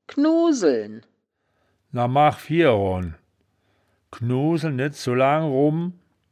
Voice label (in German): "knuseln", wittlich; das wittlicher woerterbuch; woerterbuch vertonung